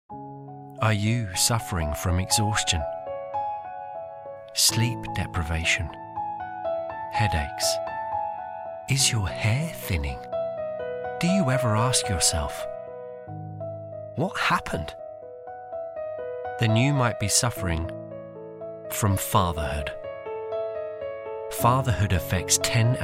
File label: London, Essex lad. Versatile and funny; Nike Running